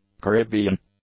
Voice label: "Caribbean", English; adjectives

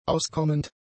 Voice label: "auskommend", German; adjectives

